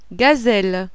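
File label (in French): "gazelle", French; MAMMIFÈRE; animal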